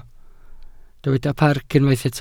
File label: "parc", Welsh